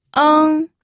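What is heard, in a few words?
"eng", pinyin, first tone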